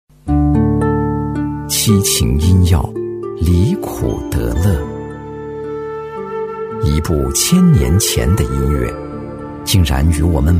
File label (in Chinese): 男 纪录片 娓娓道来; 历史感强; 质感磁性; 七情音药《离苦得乐》